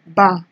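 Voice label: "bar", Nigerian Pidgin, female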